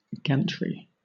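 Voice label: "gantry", British English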